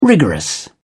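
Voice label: "rigorous", British English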